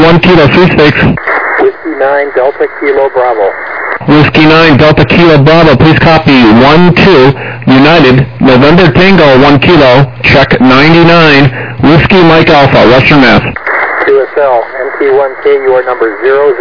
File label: SSB USB 21MHz